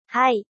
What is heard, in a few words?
"Hai" Yes